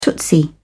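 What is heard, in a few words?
"tootsie", British English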